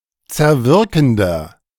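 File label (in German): "zerwirkender", German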